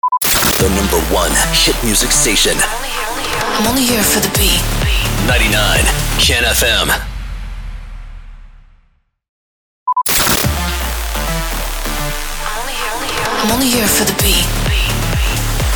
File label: SWEEPER; ONLY HERE FOR THE BEAT